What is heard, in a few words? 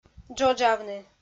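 "джоджавны", Komi, female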